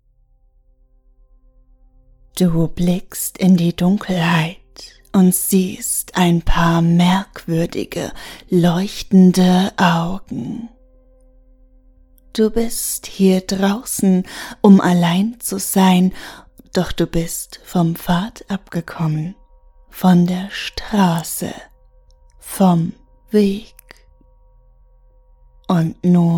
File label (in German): erotische Hypnose; Ohrgasmus; Beute; Du blickst in die Dunkelheit und siehst merkwürdige, leuchtende Augen... Verwandle dich, meine Beute!